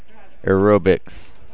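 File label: "aerobics", USA, male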